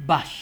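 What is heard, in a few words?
"bash", Nigerian Pidgin, female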